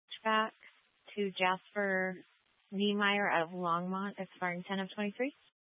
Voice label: Law Enforcement Channel